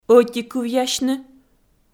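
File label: "ӧтикывъясьны" Komi, female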